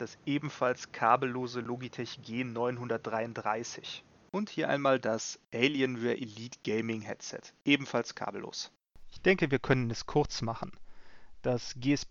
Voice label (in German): Mikrofon